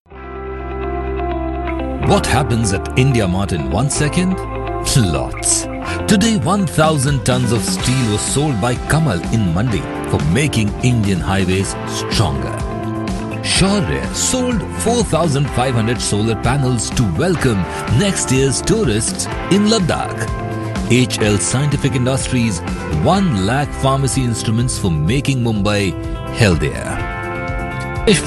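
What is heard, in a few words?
narration english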